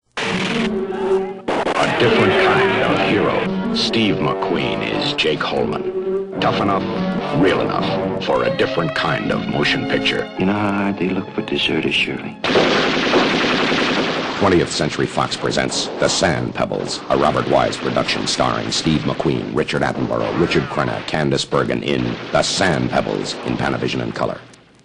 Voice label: radio ads